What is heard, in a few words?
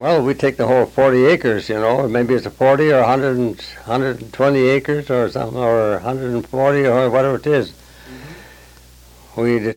Personal history with 87 year old male Chisholm Minnesota, american english dialect